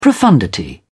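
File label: "profundity", British English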